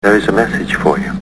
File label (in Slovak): sms hlásky en